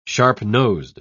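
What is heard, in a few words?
"sharp-nosed", British English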